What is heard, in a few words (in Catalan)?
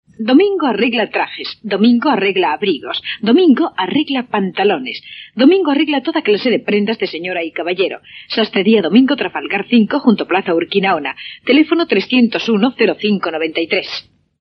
falca anys 80